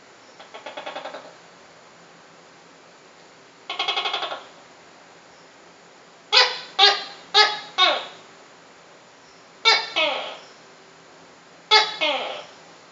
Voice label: stutter; tuco